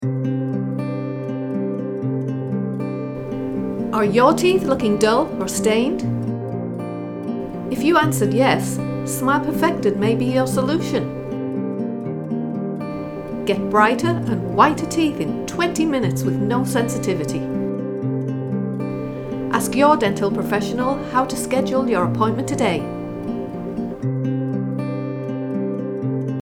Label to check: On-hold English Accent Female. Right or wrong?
Right